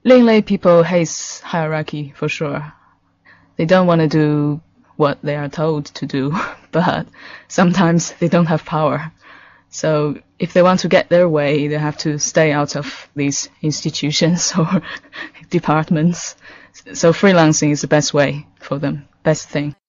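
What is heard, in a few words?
Mandarin, English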